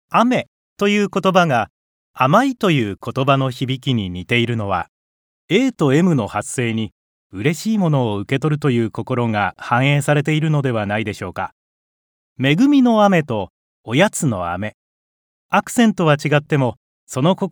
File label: Narration